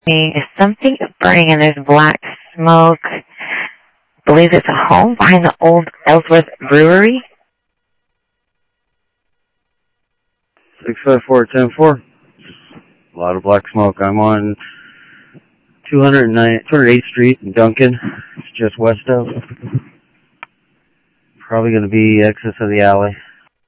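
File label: Sheriff East County, scanner audio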